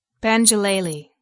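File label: "banjulele", English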